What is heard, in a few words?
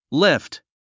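"lift", English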